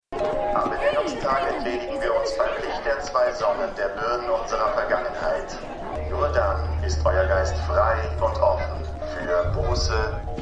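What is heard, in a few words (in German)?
Männl Durchsage; The 100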